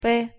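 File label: "п", Russian pronunciation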